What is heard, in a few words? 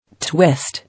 "Twist", English